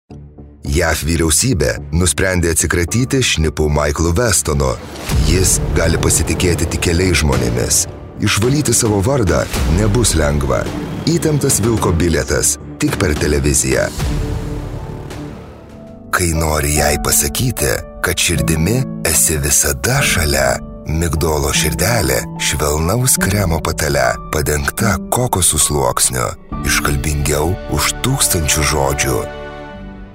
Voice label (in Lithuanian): lietuviu, vyras